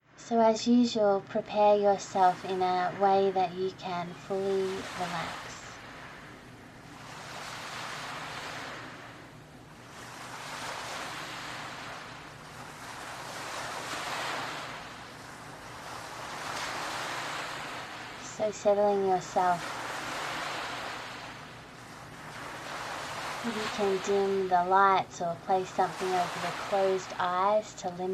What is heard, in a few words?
The Ocean, yoga nidras